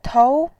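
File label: "tou", Mandarin Chinese, second tone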